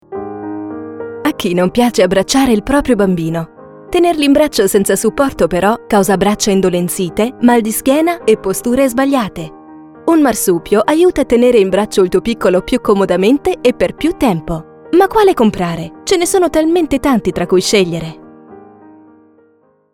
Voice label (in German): Italiensch